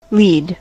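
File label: "lead present", US English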